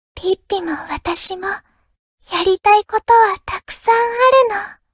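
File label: level up, Japanese; cookie run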